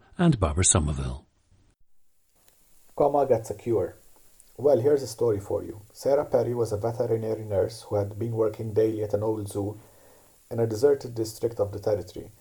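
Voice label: malta, International Dialects of English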